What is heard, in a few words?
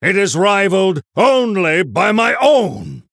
Male Human mirror start